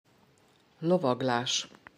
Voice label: lovaglas horse riding, Hungarian; hungarian sports words flashcards